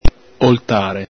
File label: "oltare", Venetian